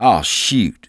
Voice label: curse, English Voice